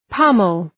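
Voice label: "pommel", English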